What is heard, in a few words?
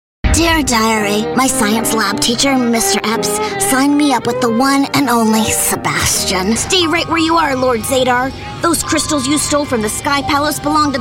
Animation